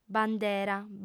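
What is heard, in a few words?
"bandera", female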